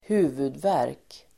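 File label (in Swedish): "huvudvärk", Swedish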